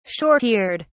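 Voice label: "short eared", British English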